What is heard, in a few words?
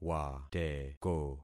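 "wateko", concatenated syllables; Affixing experiment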